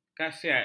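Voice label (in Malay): "khasiat", Malay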